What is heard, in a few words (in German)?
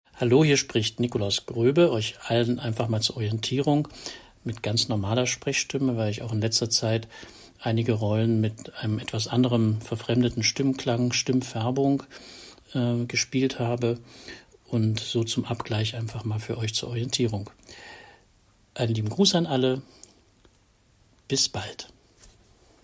normale Sprechstimme